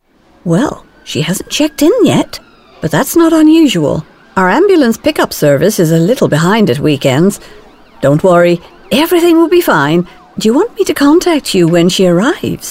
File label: Character